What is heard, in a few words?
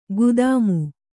"ಖದೀಮ", Kannada